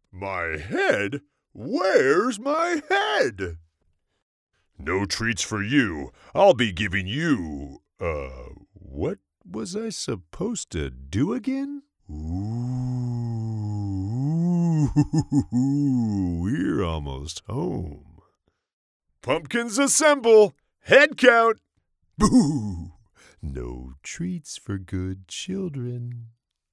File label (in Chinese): 男美式游戏角色音